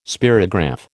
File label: "spirograph", American